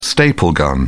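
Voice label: "staple gun", British English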